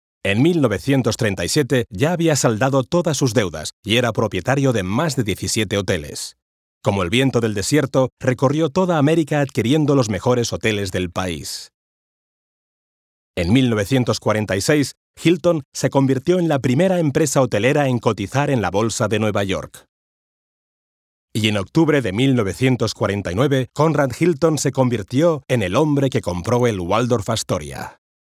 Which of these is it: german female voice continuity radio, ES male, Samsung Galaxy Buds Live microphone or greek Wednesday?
ES male